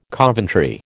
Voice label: "Coventry", American

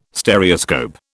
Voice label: "Stereoscope", British

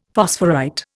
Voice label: "phosphorite", Indian; Dictionary

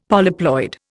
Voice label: "polyploid", Indian; Dictionary

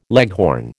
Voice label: "leghorn", American

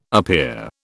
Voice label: "appear", British

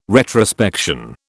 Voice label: "retrospection", British

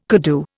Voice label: "koodoo", Indian

